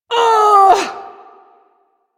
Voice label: Ability Long Cast, Barks; Warframe; Corpus Lich; Persona B